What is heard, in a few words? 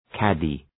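"caddie", English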